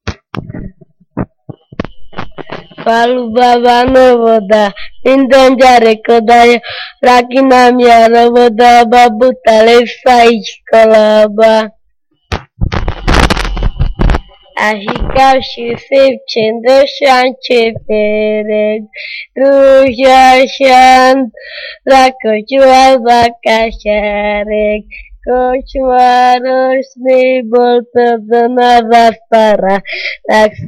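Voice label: dialect collection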